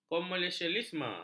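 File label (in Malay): "komersialisme", Malay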